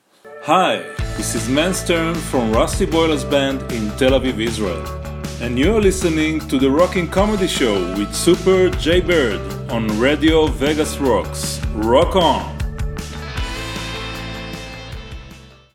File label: station ID; RUSTY BOILERS; RadioVegasRocks RockingComedyShow